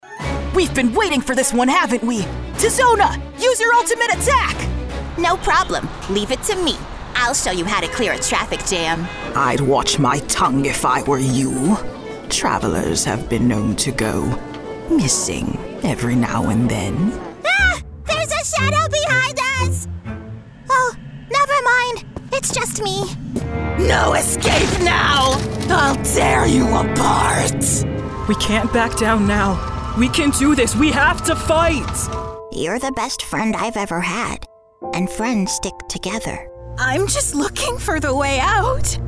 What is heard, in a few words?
Character; TaDa